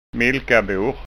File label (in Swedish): "milkabor", skanska ord